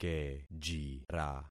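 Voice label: "kejira", concatenated syllables; Affixing experiment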